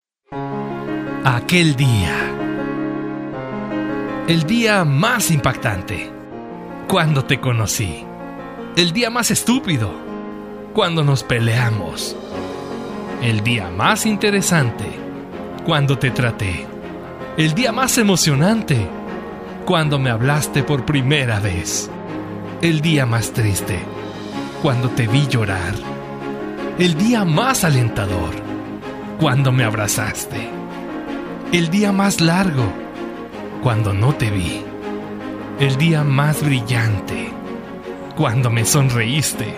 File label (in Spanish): locutor emotivo; aquel dia